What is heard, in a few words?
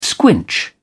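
"squinch", British English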